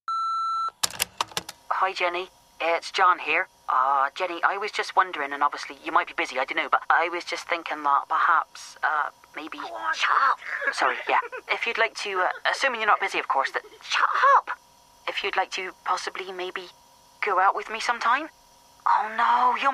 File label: Answerphone